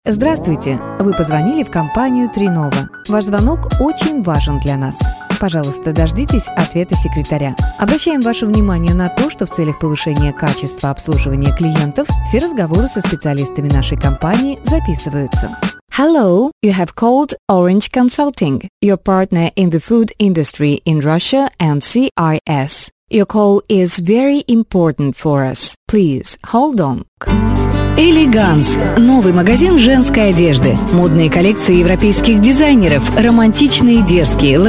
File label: english